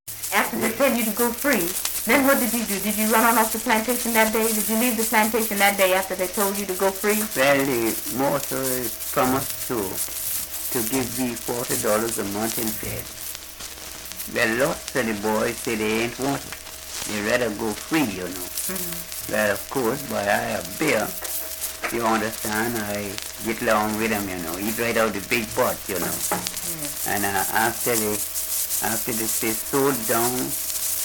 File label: African-American English